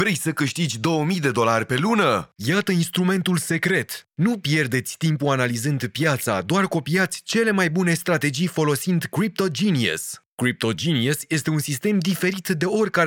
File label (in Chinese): 罗马尼亚语配音